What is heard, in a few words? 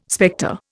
"spectre", Indian